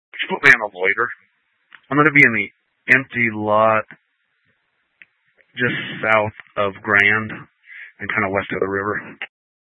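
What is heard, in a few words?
Police 1 Dispatch, Scanner audio recording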